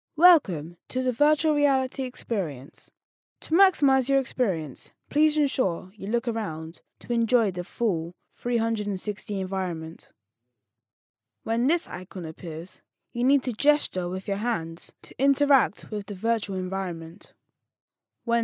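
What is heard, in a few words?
English British, boy, child voice over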